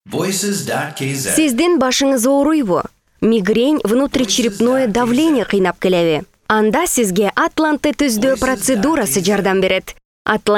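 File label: kyrgyz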